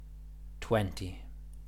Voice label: "twenty", English